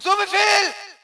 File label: ger yes sir